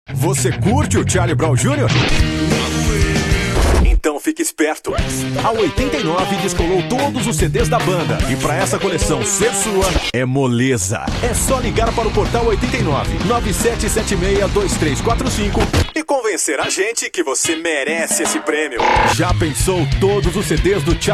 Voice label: Promos Radio

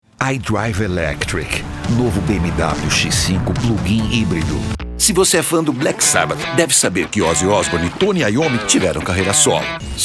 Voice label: Locução Maduro Empoderado

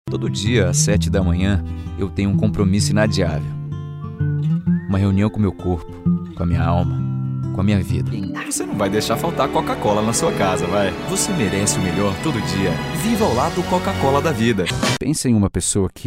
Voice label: Emocional

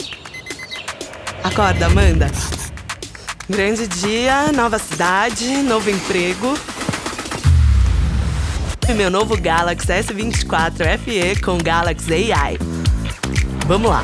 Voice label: Natural Conversado

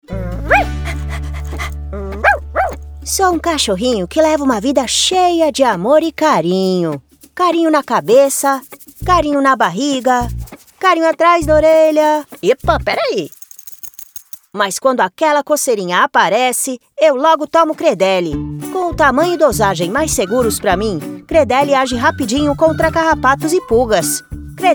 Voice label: Voz Original e Caricata Trilíngue

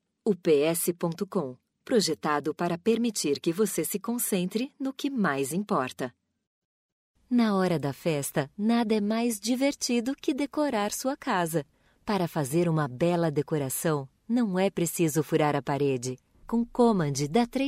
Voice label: CORPORATIVOS

